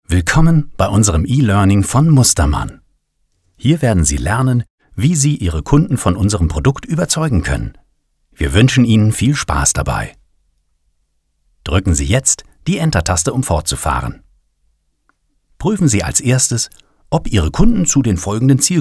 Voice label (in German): sechzehn elearning deutsch, Sprecher